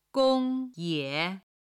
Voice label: "gong1ye3", chinese